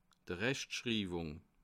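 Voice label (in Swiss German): "rechtschriwung", Bas Rhin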